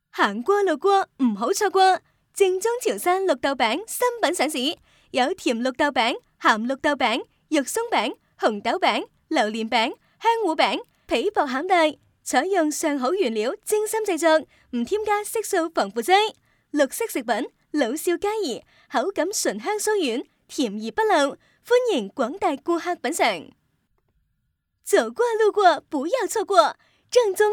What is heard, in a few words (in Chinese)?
粤语促销, 女